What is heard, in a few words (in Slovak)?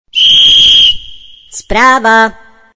sms hlásky cz